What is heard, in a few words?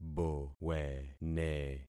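"bowene", concatenated syllables; Affixing experiment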